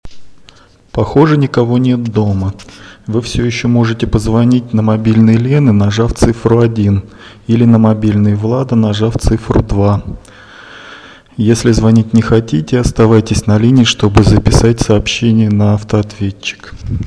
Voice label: ivr